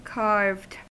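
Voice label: "carved", US English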